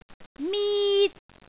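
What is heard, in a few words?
"mit1", Cantonese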